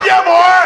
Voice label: "yeah boy", voice